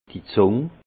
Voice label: "zung", alsace; langue; vin

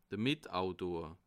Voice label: "mitautor", alsace

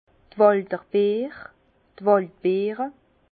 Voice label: "walderbeer", Bas Rhin; fraise des bois; vin

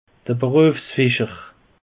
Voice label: "beruefsfischer", alsace; peche

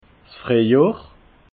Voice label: "friehjohr", alsace

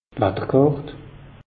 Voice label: "watter kàrt", alsace; meteo

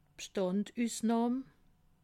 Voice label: "bstandsufnahm", alsace

